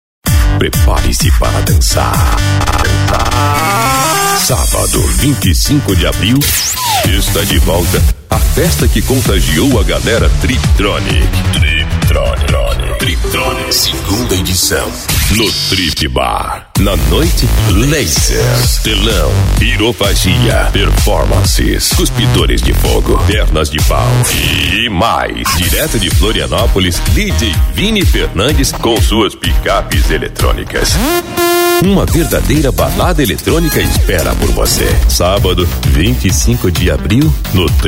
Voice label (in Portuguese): locutor; Stúdio Nativa Produções